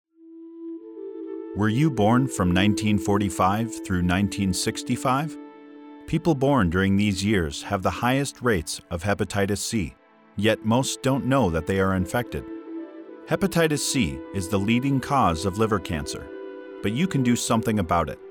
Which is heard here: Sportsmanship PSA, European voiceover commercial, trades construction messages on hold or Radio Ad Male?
Radio Ad Male